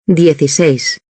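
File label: "dieciseis", Spanish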